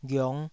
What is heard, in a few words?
"ghiong5", taiwanese